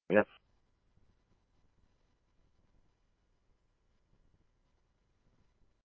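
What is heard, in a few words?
scanner, Phoenix Police Department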